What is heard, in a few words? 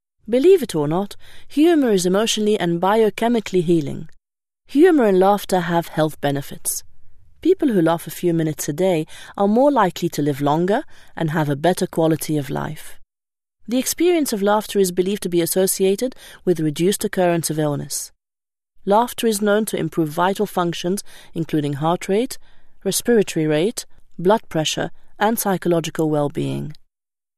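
Arabic English; Arabic (Iraq), Middle Eastern, Male, 30s-50s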